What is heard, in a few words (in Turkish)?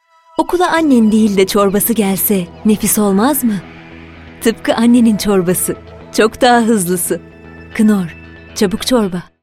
neseli esprili; seslendirme